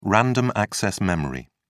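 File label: "random-access memory", British English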